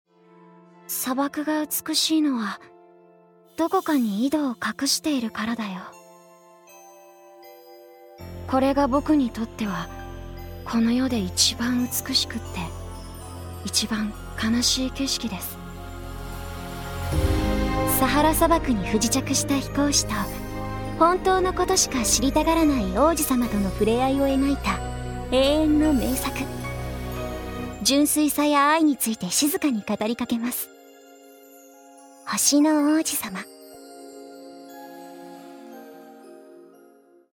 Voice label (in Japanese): ナレーション